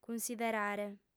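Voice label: "cunsiderare", female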